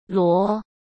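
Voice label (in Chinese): "罗", Mandarin; chinese flashcards; hsk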